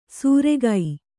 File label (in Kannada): "ಸೂರೆಗೈ", Kannada